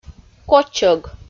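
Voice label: "кочӧг", Komi, female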